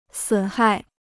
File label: "损害", Chinese